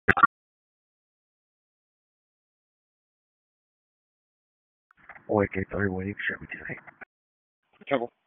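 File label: Sheriff's Office Dispatch 1, p25; wake p25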